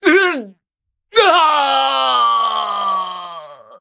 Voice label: Male BloodElf Death; zh